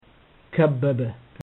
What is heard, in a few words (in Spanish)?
"kebbebe", amharico